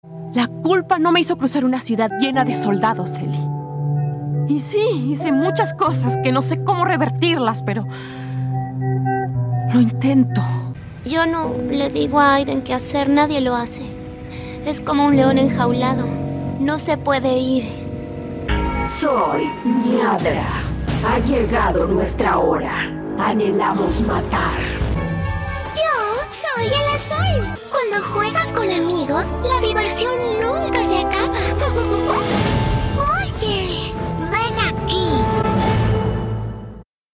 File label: Argentinian Spanish voice video game